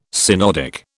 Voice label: "synodic", British

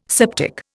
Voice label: "septic", Indian

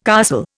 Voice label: "castle", Indian

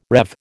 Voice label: "rev", American

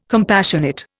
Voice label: "compassionate", Indian

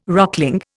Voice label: "rockling", Indian

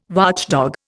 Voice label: "watchdog", Indian

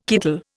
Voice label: "kittle", Indian

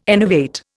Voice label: "enervate", Indian

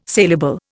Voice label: "salable", Indian

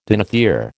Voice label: "dinothere", American

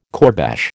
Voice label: "kourbash", American

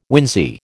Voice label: "wincey", American

